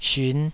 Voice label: "syun5", Taiwanese